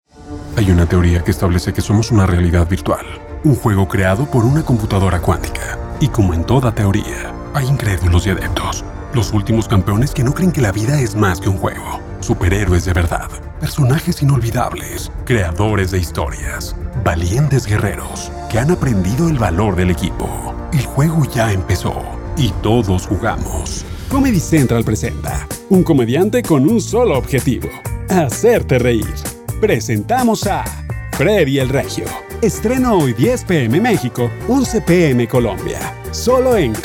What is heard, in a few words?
Promo